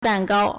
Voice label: "dan4 gao1", Chinese